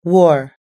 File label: "war", us-english